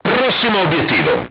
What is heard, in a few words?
target destroyed, crew tanks italian; War Thunder